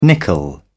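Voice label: "nickel", British English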